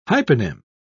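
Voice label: "hyponym", British English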